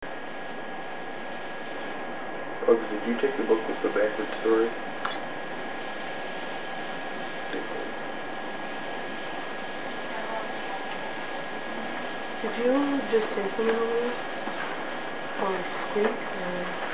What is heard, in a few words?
e.v.p. hears whisper in ear Old Jail